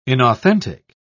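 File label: "inauthentic", British English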